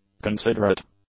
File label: "considerate", English; adjectives